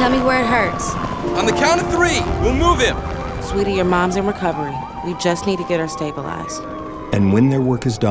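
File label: Volunteer Radio Spot; MI Volunteer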